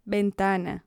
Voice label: "ventana", Spanish, stress; Intonation